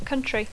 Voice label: "country", English